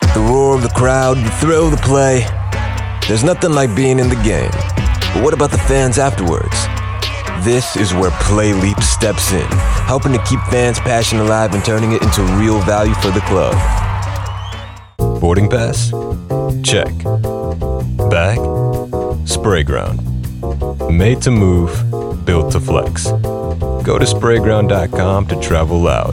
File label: Commercial